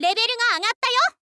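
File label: "level ga agatta yo", Japanese, girl; voice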